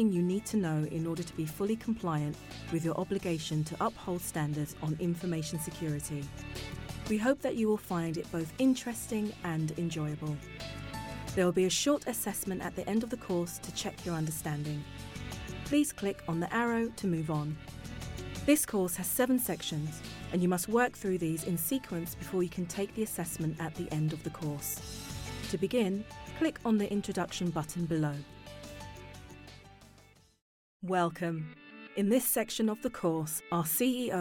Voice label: e-learning